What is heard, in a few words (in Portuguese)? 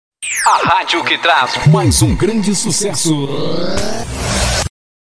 vinhetas a radio que traz mais um grande sucessoooo efeito voz